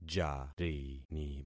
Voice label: "jarini", concat syllables; Affixing experiment